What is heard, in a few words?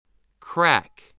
"crack", spelling